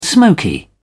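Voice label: "smoky", British English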